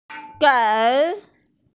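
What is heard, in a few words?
"gei3", Chinese, F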